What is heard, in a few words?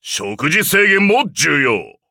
levelup ja; Cookie Run